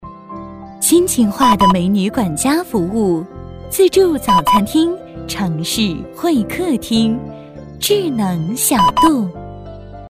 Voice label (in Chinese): 广告亲情化服务温柔